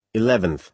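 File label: "eleventh", American English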